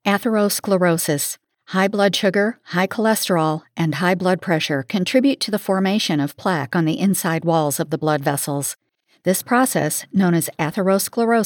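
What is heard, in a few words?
Atherosclerosis, voice over demos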